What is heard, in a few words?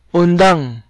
stop, cebuano